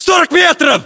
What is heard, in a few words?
threat distance, ru; localized english